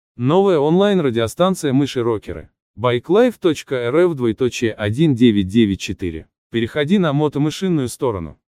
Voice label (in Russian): промо радио; Мыши рокеры